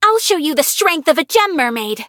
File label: battle, en; cookie run